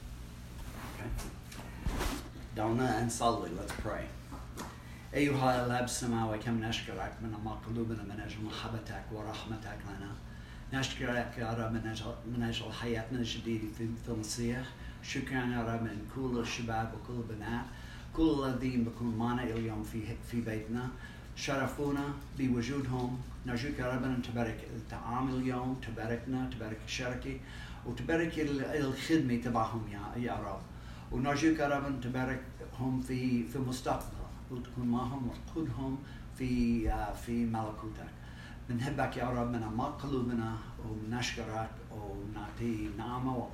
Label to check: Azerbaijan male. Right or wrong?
Wrong